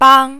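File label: "bang" tone 1, Mandarin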